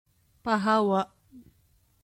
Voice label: Cebuano